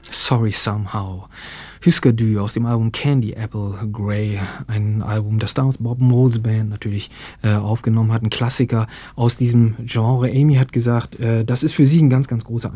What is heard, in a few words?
german translation